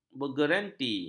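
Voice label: "bergerenti", Malay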